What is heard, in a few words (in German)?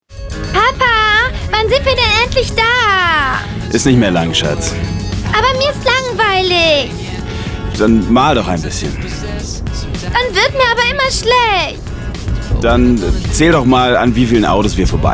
Kind